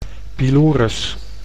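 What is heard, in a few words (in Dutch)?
"pylorus", Dutch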